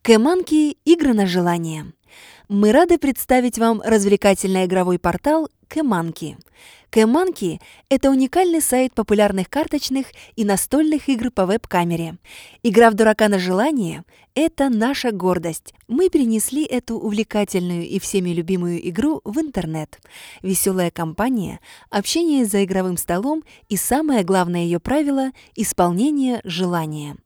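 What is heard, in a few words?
Russian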